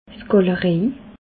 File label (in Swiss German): "gallerej", alsace